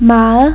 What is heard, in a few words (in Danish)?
"Meget", Danish